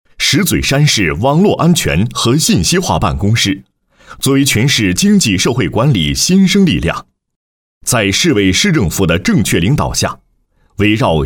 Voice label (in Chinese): 男, 政府企业; 石嘴山市网络安全和信息化办公室